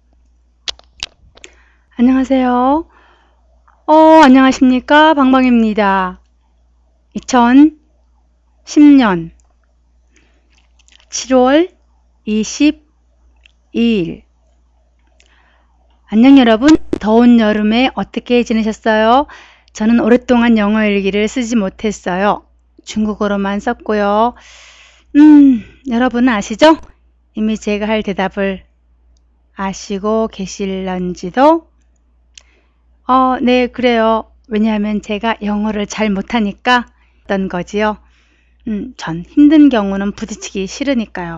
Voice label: Korean tropical sweltering night weather cast

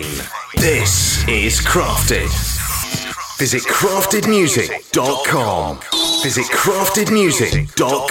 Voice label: voiceovers radio imaging package; krafted

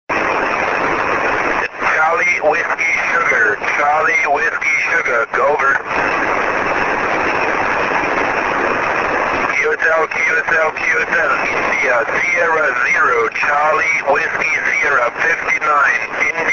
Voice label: 6m ssb

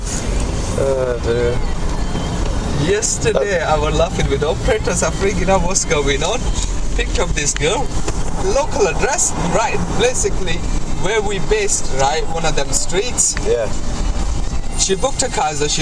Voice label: taxi man funny

